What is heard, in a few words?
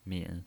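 "min", pinyin voice, Mandarin Chinese, third tone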